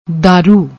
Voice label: "darru", Punjabi; ਦਾਰੂ